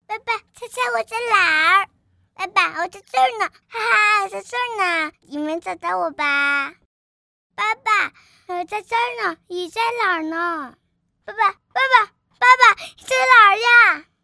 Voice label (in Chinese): 男真童 开心 害怕; 真童配音